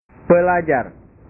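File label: "pelajar", Indonesian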